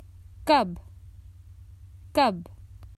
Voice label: Common Hindi Phrases for travel When